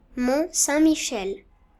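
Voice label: "basse normandie", French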